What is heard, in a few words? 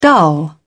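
"dull", British English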